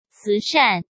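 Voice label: "慈善", Mandarin Chinese; hsk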